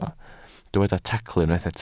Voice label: "tacl", Welsh

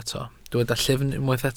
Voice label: "llyfn", Welsh

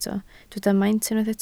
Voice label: "maint", Welsh

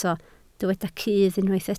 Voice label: "cudd", Welsh

